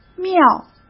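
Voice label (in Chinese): "妙", Mandarin Chinese; hsk; chinese flashcards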